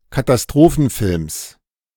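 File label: "Katastrophenfilms" German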